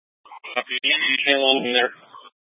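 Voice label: Incident Tactical 2, Scanner audio recording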